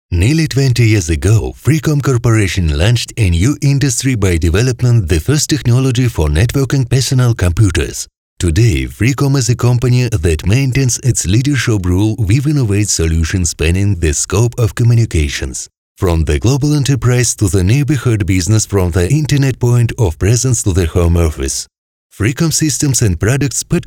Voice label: LOW MALE VO ENG RUS

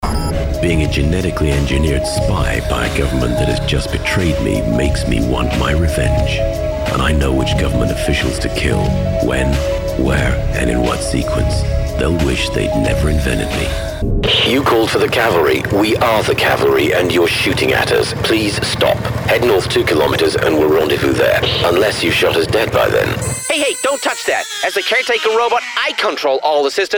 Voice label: Gaming Character voices

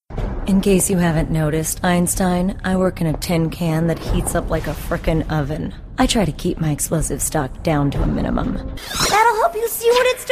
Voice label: Animation

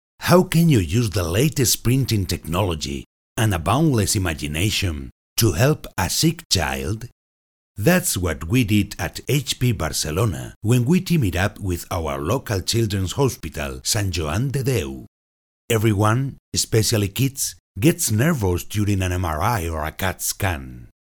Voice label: English with Spanish Castilian Accent

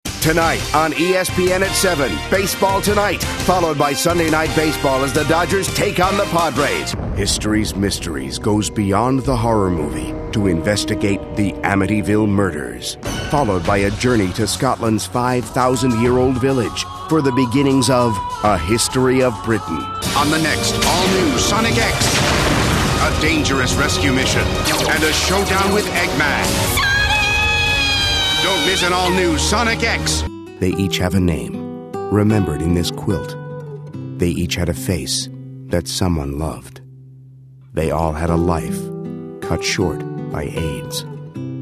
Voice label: Promos Voice Over

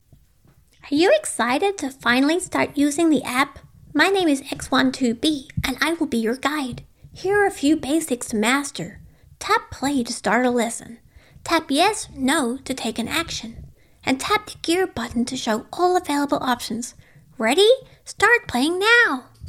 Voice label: animation video game

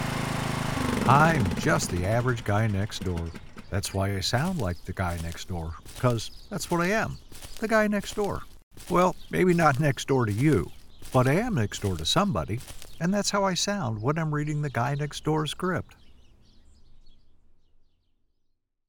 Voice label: guy next door

